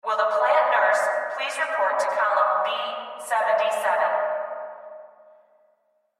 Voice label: Factory Pa Female Plant Nurse Report To Column B-77; Pa Announcement; Industry; Blastwave